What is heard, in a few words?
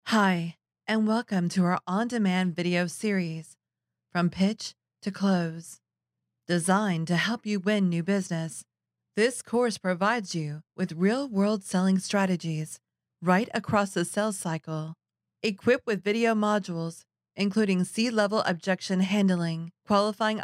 E Learning Corporate